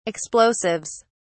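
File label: "explosives", English, British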